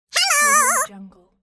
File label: cartoon voice silly hello